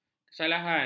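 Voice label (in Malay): "kesalahan", Malay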